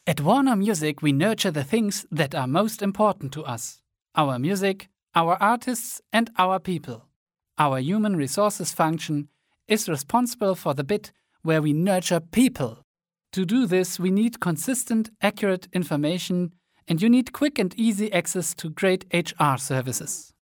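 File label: German, male, voice over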